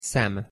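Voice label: "szem", Hungarian pronunciation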